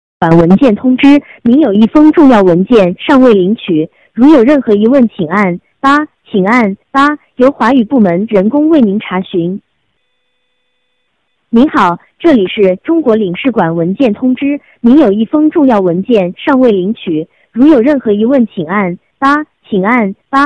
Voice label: chinese voice mail